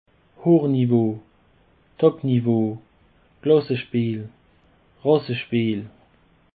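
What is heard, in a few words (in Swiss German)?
"hoch nivo", Alsatian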